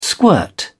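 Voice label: "squirt", British English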